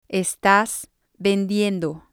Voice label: "estas vendiendo", spanish